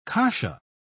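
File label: "kasha", British English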